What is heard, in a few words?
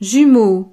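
"jumeaux", French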